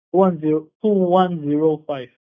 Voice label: account number, Malaysian